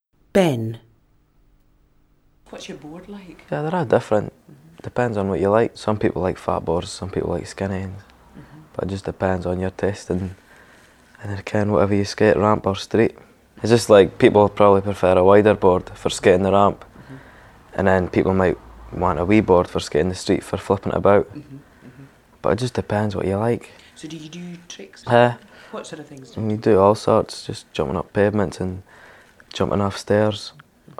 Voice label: Dundee, scotspeak